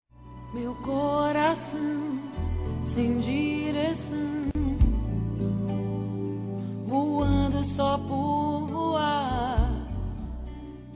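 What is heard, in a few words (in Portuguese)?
romantico, Telemensagens, Românticas masculino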